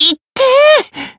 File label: Voice damaged, jp